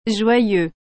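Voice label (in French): "joyeux", French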